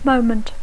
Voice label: "moment", English

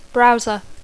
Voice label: "browser", English

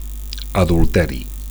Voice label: "adulteri", Catalan; adultery

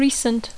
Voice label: "recent", English; adjectiu

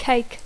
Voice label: "cake", English

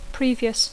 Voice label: "previous", English

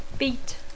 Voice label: "beat", English

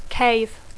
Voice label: "cave", English

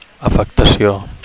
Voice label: "afectacio", Catalan; affectation

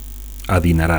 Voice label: "adinerat", Catalan; wealthy

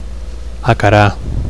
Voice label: "acarar", Catalan; to confront; to compare

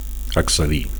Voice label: "accedir", Catalan; [~ a] to access; to enter

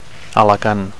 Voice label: "Alacant", Catalan; Alicante

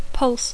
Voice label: "pulse", English

